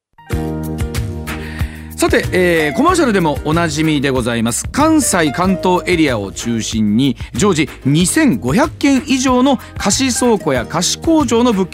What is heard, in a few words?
radio cm